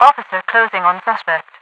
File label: officer closing on suspect, radio voice; Half Life 2